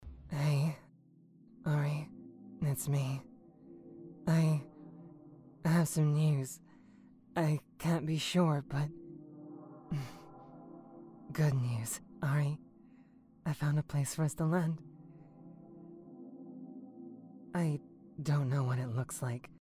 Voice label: US UK Fusion Accent